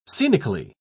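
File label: "scenically", British English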